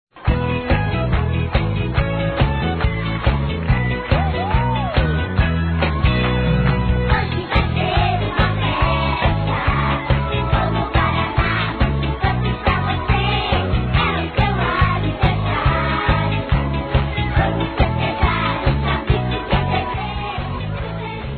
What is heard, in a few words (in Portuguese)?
ANIV. SOBRINHA CRIANÇA M